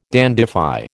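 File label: "dandify", American English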